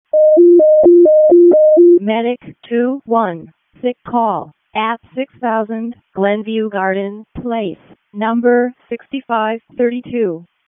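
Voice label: Wake County EMS ALERT, p25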